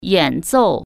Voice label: "play instrument", Chinese

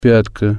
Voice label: "heel", russian

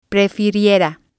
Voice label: "prefiriera", spanish

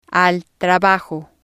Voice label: "al Trabajo", spanish

